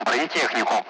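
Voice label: "armor", Russian; Allied Flight; DCS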